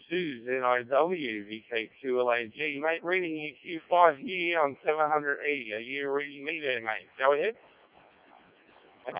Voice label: decoded speech, parrot